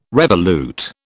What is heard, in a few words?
"revolute", British